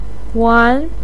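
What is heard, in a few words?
"wan", Mandarin pinyin, second tone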